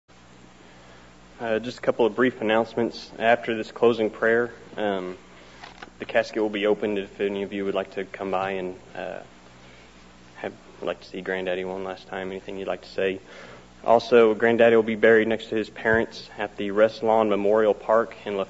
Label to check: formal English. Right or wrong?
Wrong